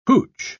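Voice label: "hootch", British English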